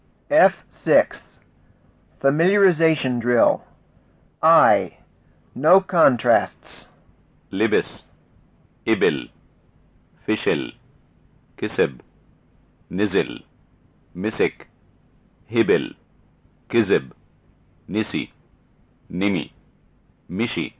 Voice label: Levantine Arabic Pronunciation; FSI